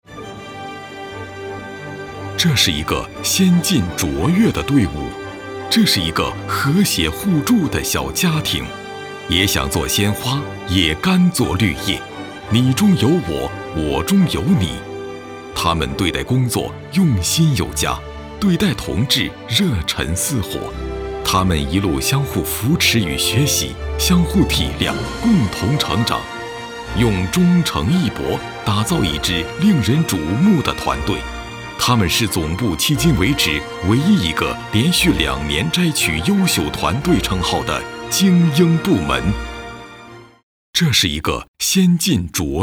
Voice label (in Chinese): 男 颁奖词 团队类 坚定 大气 温润